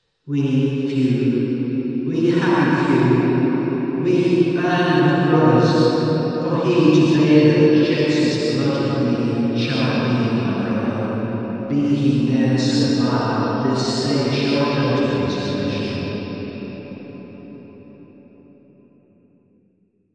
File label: speech cathedral; reverb demo